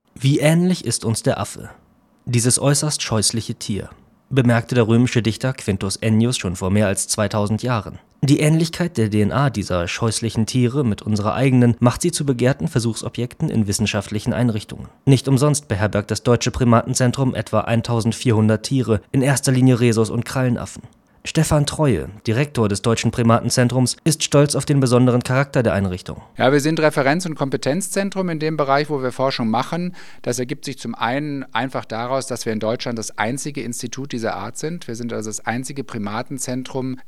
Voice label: radio; sprecher